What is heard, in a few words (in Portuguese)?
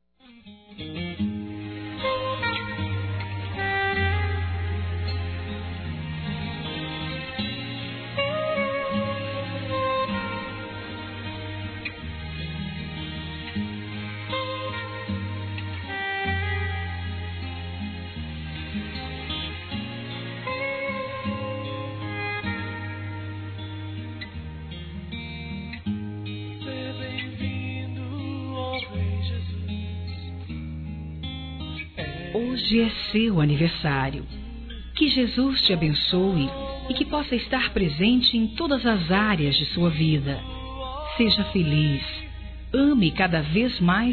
NEUTRO F, Telemensagens Aniversários; ANIV. Evangelico; Fem